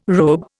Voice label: "robe", Indian